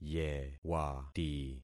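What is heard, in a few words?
"yewati", concatenated syllables; Affixing experiment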